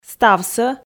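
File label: "ставсӧ", Komi, female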